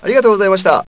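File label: "arigato", Japanese; pizza ya san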